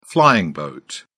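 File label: "flying boat", British English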